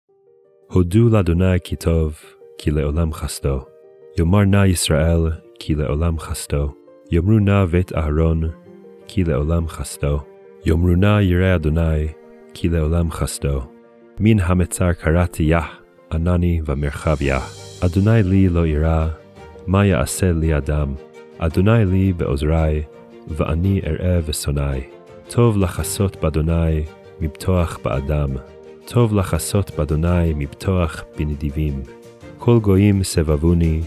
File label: poetry and prayer psalm hebrew